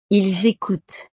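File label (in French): "ils ecoutent", French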